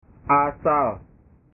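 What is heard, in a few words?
"asal", Indonesian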